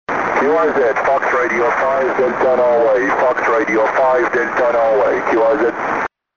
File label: 6m ssb